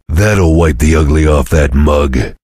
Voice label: cheer; svencoop; voice commands; Duke